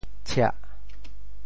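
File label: "tshiah4", Teochew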